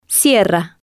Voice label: "cierra", spanish